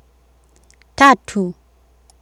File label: "tatu", Swahili